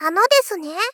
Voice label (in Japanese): Moe Call Ano desune